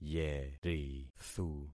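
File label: "yerithu", concatenated syllables; Affixing experiment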